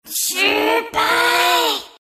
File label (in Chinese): lose, zh; Dota 2